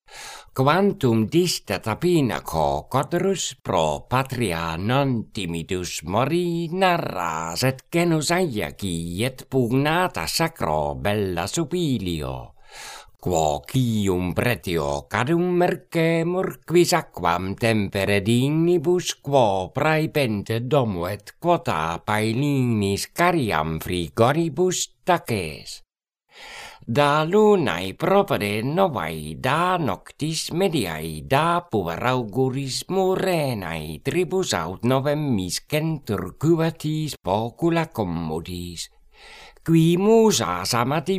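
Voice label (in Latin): Horatii carmina quae voce canora pronuntiat; Quantum distet ab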